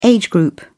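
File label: "age group", British English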